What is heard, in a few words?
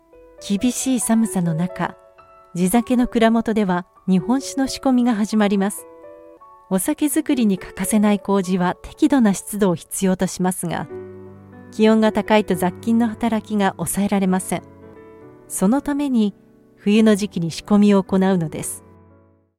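Japanese narration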